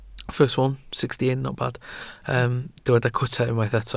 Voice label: "cwta", Welsh